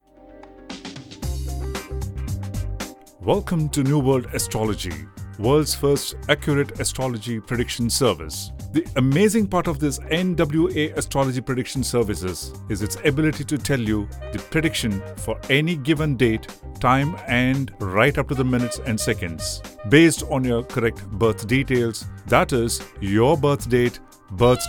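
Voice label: explainer english; NWA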